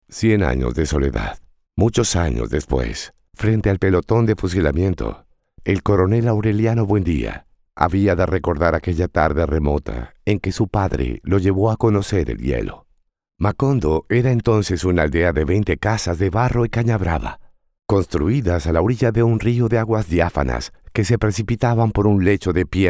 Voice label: spanish latin american audiobooks